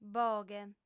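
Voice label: "boghe", lingua sarda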